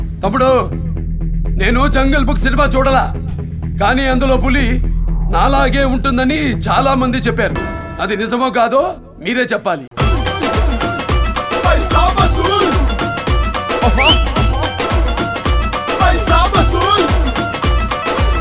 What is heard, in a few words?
Dialogue Tone Telugu Ringtone; Paisa Vasool; NBK